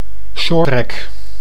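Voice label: "shorttrack", Dutch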